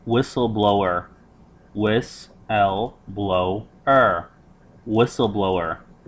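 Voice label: "whistle-blower", spoken word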